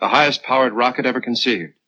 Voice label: Vocal the highest powered rocket ever conceived, pitch glitch